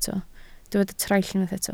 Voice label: "traill", Welsh